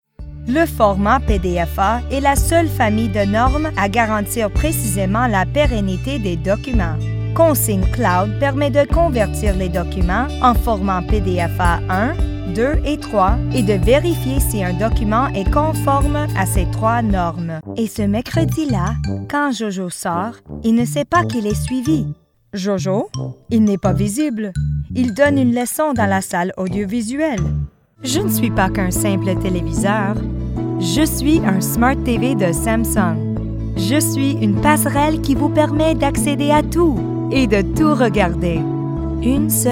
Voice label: French

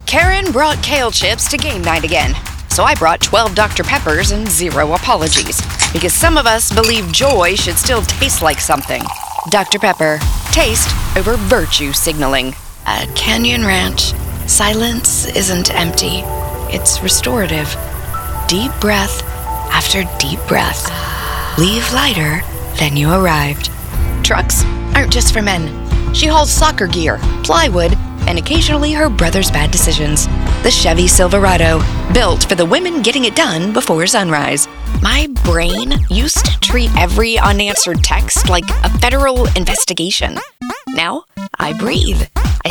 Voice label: Commercial, american

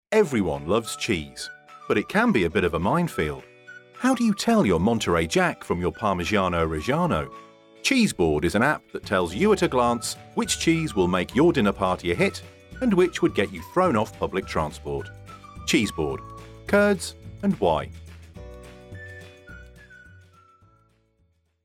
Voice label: CHEESEBOARD COMMERCIAL

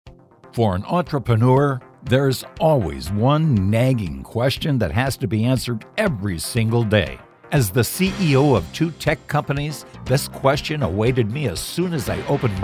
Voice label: Radio Commercial

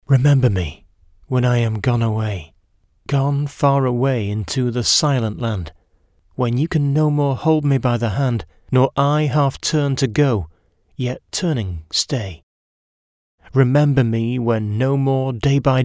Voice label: Dry

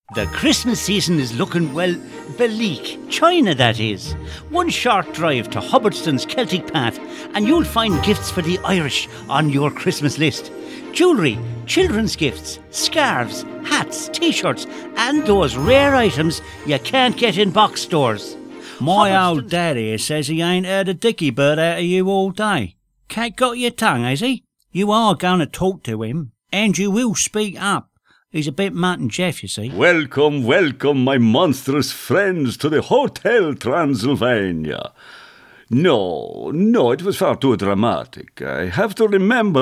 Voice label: Character Reel

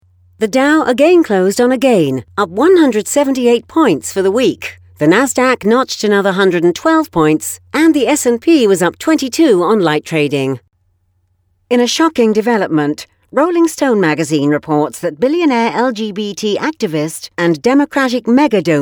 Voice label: NEWSREADER British

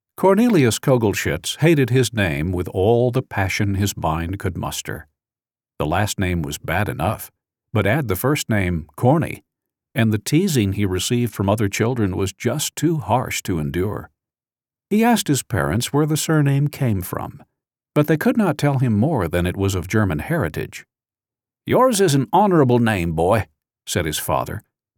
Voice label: Corny whimsical

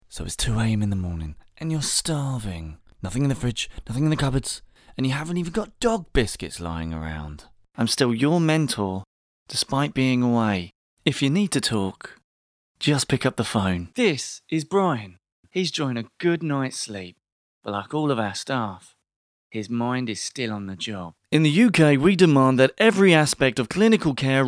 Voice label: Dry

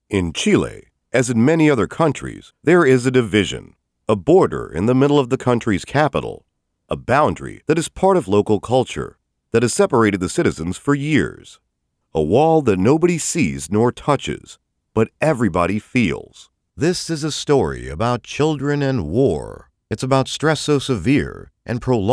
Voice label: Documentary Reel

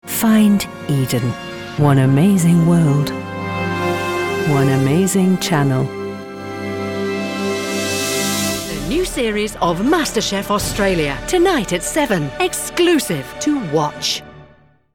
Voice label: TV Imaging

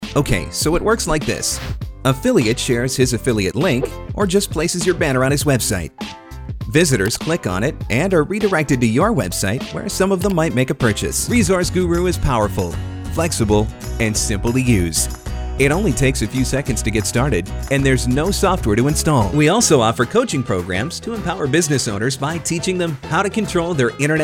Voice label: Explainer Video

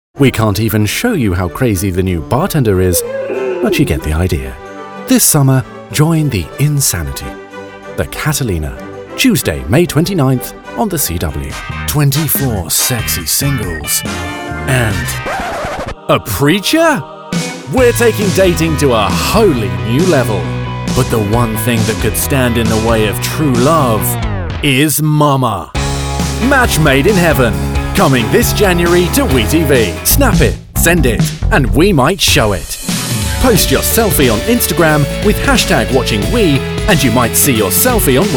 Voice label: Promo

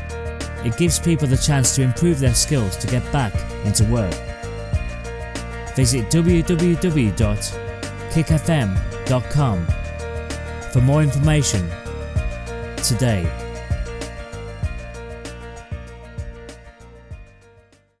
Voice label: fm course vo; kic

